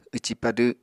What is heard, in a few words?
"wci-padw", Apatani